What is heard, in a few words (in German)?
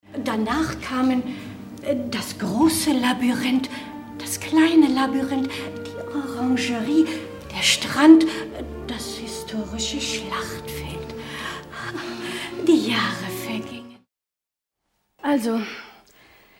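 hörspielmix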